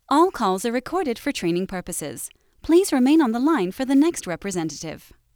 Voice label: Generic Call Recording